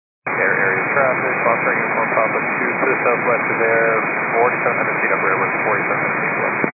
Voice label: 122.925 MHz; scanner radio